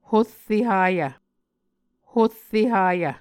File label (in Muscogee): "horre hayv", Muscogee